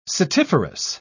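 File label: "setiferous", British English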